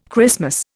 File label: "Christmas", Indian